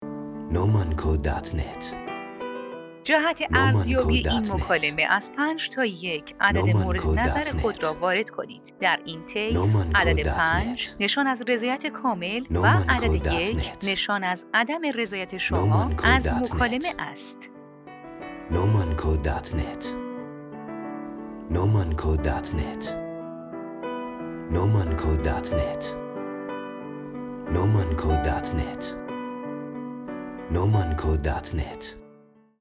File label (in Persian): فایل صوتی برای سیستم نظرسنجی تلفنی در تلفن گویا